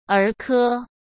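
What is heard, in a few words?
"儿科", Mandarin Chinese; chinese-flashcards; hsk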